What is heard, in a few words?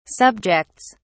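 "subjects", British English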